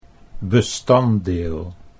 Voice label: "bestanddeel", Dutch